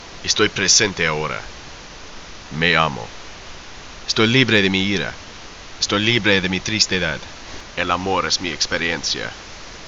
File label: Spanish Request